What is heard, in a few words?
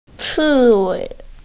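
"hedgehog", Mandarin; ci4wei5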